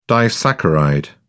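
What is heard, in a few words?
"disaccharide", British English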